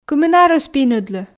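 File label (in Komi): кымын арӧс пиныдлы, Komi, female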